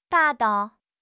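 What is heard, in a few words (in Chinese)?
"霸道", Chinese; HSK6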